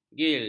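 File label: "gil", Malay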